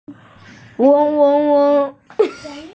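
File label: "weng1", Mandarin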